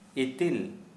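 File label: Santali male fat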